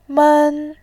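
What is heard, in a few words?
"men", Mandarin, tone 1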